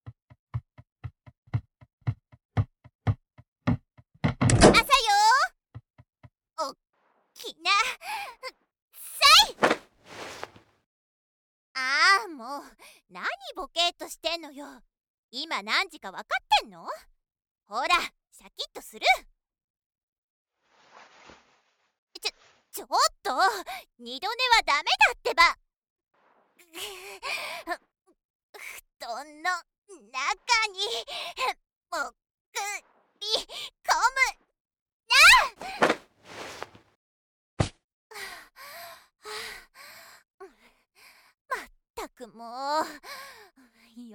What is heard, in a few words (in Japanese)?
おはようボイス～ツンデレ幼なじみ～